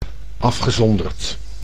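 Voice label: "afgezonderd", Dutch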